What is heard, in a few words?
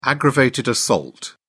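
"aggravated assault", British English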